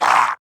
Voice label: pain, wolf gangster; Warframe; Grineer; Battle Barks